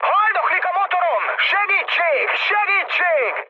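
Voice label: Hungarian damaged engine mood high, speech; War Thunder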